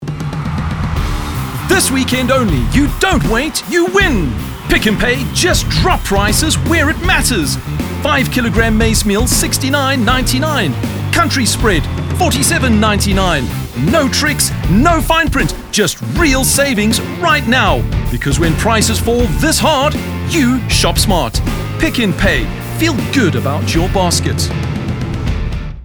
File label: Hard Sell, voiceover